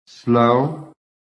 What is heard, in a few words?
"slow", phonetic